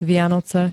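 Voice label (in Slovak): "Vianoce", Slovak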